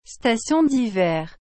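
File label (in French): "station d’hiver", French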